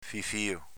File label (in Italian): "fifio", dialetto veneto; s.m. paura, fifa